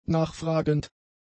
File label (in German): "nachfragend", German; adjectives